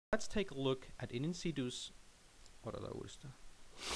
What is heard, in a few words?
Narration